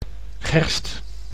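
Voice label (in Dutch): "gerst", Dutch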